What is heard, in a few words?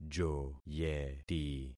"joyeti", concat syllables; Affixing experiment